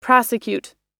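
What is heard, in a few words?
"prosecute", American English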